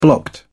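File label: "blocked", British English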